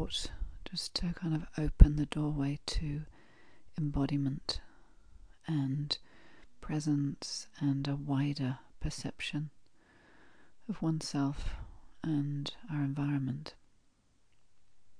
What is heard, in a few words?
Ground Breath Sound, Guided Meditations